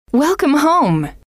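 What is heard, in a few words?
welcome home, female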